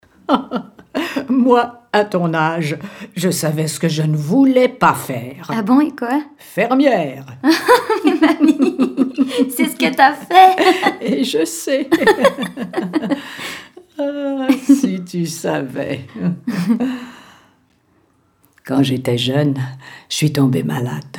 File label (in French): narration court metrage